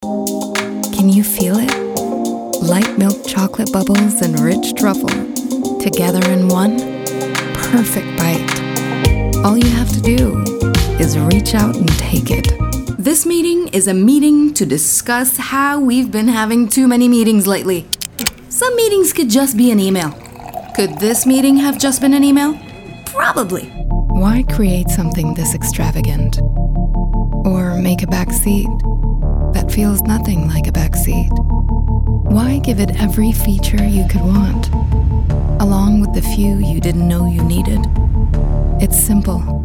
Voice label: Commercial